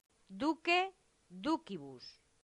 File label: "duce ducibus", latin; santillana